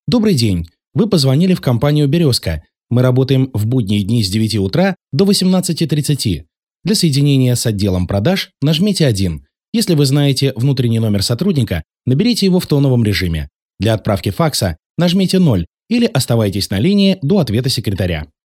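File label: IVR answering machine; avtootvetchik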